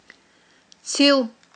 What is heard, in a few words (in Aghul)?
"цил", aghul